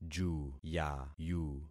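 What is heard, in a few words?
"juyayu", concatenated syllables; Affixing experiment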